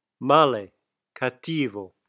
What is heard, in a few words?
male cattivo